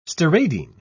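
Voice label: "steradian", British English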